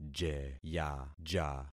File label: "jeyaja", concat syllables; Affixing experiment